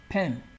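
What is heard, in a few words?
"pem" Nigerian Pidgin, male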